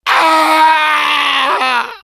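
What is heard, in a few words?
pain critical death, fr; Demoman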